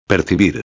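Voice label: "percibir", Spanish; ortografia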